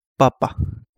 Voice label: Kapingamarangi; whiteedged lyretail